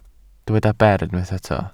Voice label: "ber", Welsh